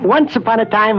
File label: once upon a time cartoon